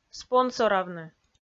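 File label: "спонсоравны", Komi, female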